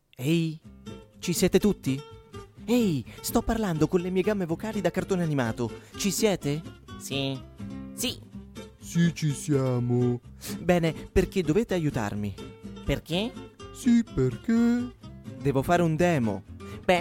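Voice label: Italian, male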